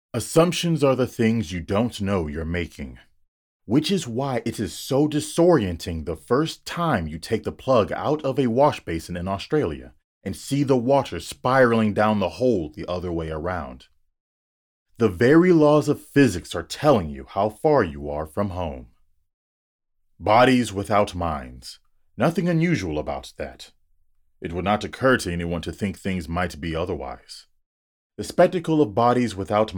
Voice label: Adult Non Fiction